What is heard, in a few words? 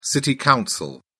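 "city council", British English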